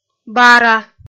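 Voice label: "бара", Komi-Zyrian, female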